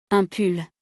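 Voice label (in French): "Un pull", French; vocabulaire; le lexique de l'habillement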